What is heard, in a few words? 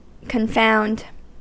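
"confound", American English; pronunciations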